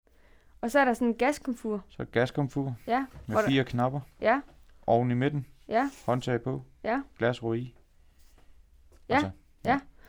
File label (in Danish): NYB dialekt aar